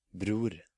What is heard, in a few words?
"Bror", Norwegian